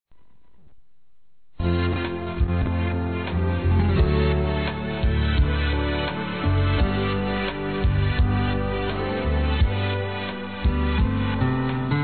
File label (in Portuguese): EVANGÉLICO, Gospel, fem; Telemensagens; Telemensagens 2017